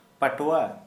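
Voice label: Santali male intestinal parasite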